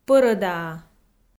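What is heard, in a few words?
"пӧрӧдаа", Komi, female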